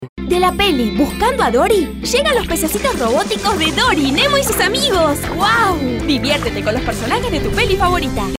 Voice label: childish fun